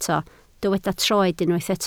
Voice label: "troed", Welsh

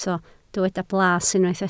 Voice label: "blas", Welsh

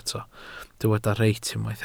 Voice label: "reit", Welsh

